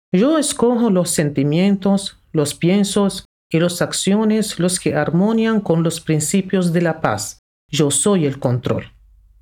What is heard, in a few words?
I Am Control Spanish Female